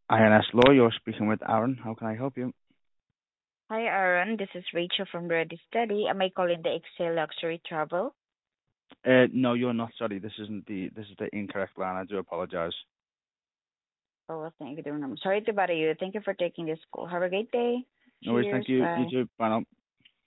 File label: call recording